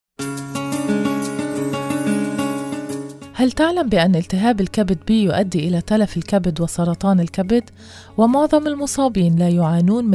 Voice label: Announcement, arabic; HepB; PSA